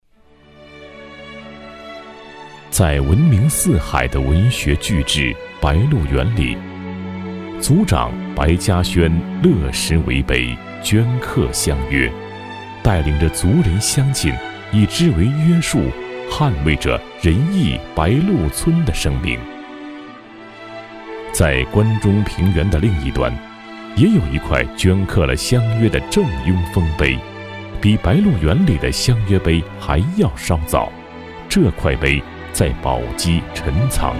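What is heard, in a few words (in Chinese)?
男（磁性讲述感）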